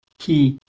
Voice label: "ki", Kurdish